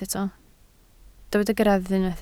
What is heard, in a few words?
"greddf", Welsh